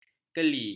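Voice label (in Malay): "kali", Malay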